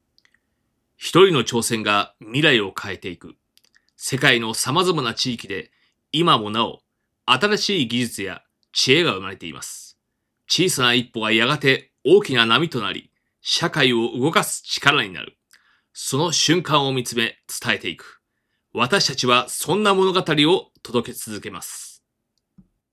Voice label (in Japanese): ドキュメンタリー風